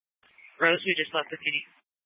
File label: Police Department Dispatch; Grand Junction